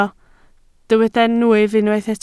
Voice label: "nwyf", Welsh